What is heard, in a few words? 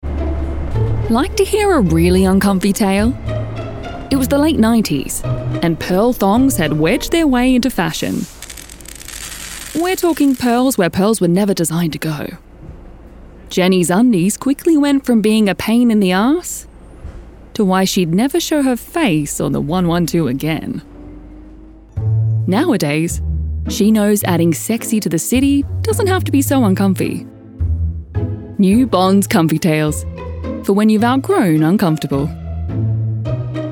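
Ad, female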